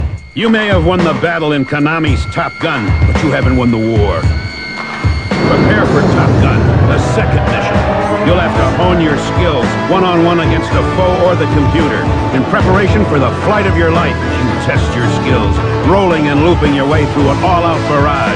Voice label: TOP GUN 2 NES COMMERCIAL